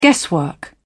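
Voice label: "guesswork", British English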